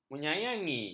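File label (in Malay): "menyayangi", Malay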